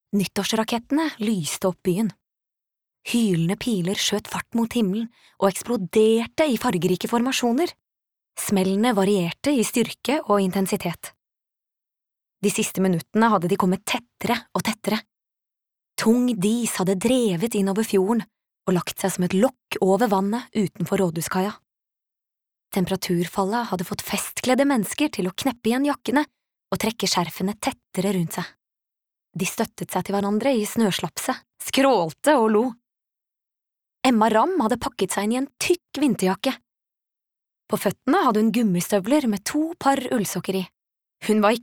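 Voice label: no audiobook, Norwegian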